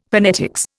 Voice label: "phonetics", Indian; Dictionary